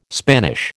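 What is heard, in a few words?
"Spanish", American English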